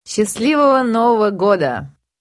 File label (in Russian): zhenskij golos "schastlivogo novogo goda"